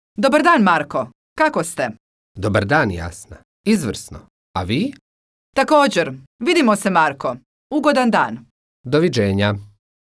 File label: dialogue, Croatian